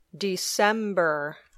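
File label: "December", English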